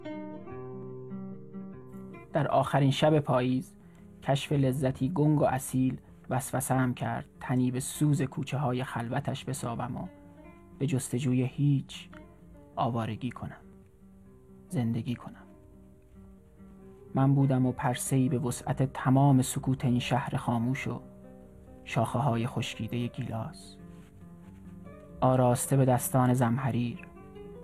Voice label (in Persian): دکلمه شب یلدا